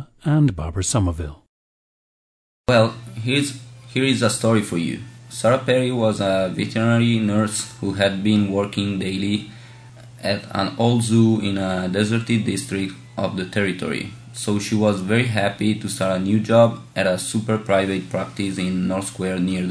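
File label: italy, International Dialects of English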